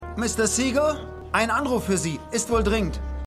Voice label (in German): Polizist, Stimmproben